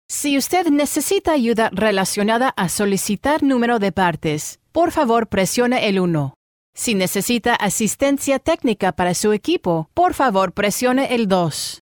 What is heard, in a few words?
Spanish; bilingual; SpanishAM